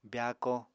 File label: "byaako", Apatani